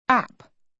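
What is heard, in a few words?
"app", British English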